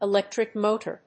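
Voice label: "ELECTRIC MOTOR", English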